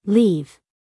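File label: "leave", American English, female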